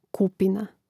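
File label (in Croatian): "kupina", Croatian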